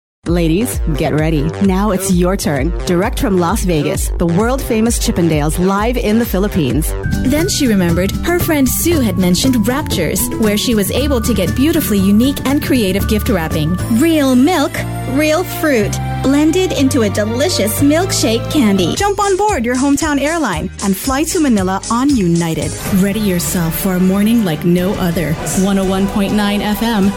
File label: USA